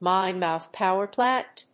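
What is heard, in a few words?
"mine-mouth power plant", English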